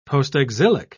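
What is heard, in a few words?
"postexilic", British English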